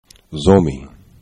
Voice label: "zomi", esperanto

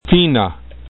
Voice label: "fina", esperanto

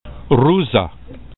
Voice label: "ruza", esperanto

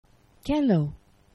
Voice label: "kelo", Esperanto; geneva

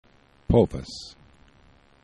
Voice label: "povas", esperanto

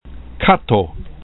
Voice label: "kato", esperanto

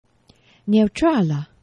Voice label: "neutrala", esperanto; geneva

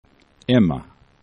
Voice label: "ema", esperanto

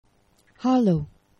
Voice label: "halo", esperanto; geneva

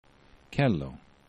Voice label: "kelo", esperanto

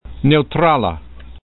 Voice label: "neutrala", esperanto

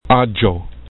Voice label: "agxo", esperanto

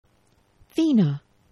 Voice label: "fina", Esperanto; geneva

